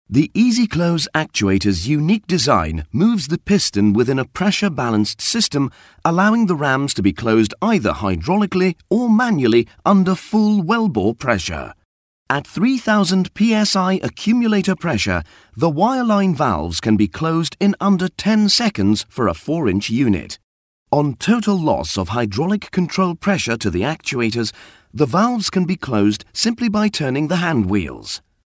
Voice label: Narration

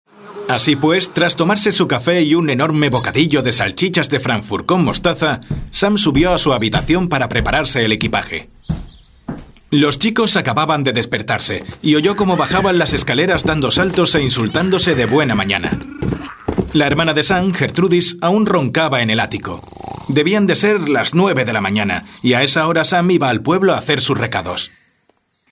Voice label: narration

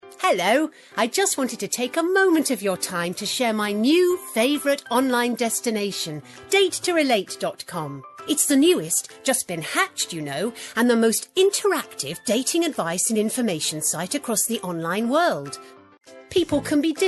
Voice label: friendly

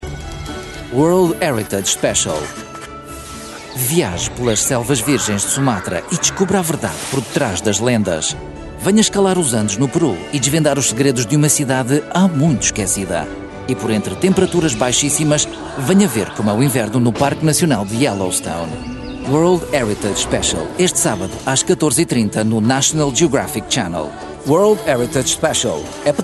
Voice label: promo

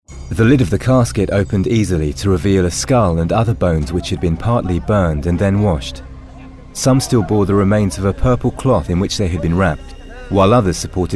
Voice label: Documentary

